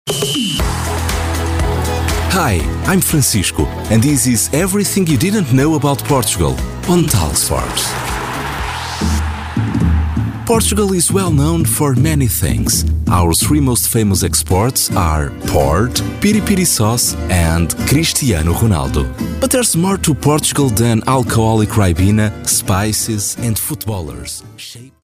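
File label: European Portuguese accented English; GoLocalise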